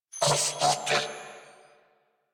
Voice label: Player Spotted Grineer Male; New War; Narmer